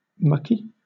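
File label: "mucky", British English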